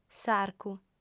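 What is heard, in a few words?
"s'arcu", Sardinian female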